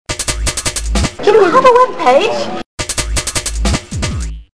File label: web page british remix